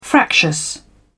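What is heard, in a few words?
"fractious", English, British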